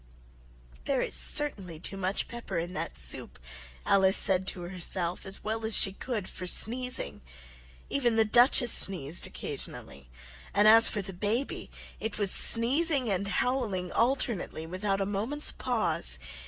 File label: alice in wonderland slow